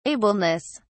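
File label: "ableness", British English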